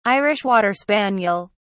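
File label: "irish water spaniel", British English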